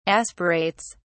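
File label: "aspirates", English, British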